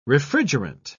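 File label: "refrigerant", English, uk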